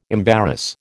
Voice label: "embarrass", American